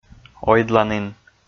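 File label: "ойдланін", Komi, male; kpv audio dict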